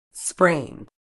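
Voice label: "sprain", British English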